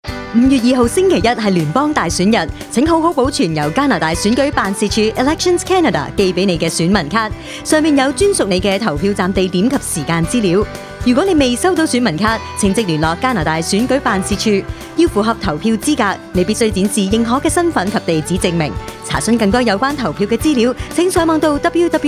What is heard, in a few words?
cantonese, radio ad